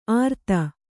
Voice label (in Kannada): "ಆರ್ತ", Kannada